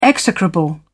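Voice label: "execrable", British English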